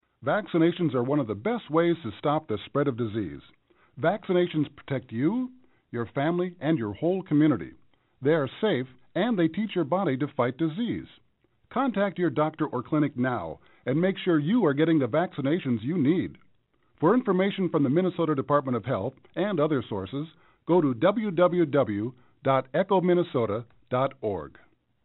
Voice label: eng vaccines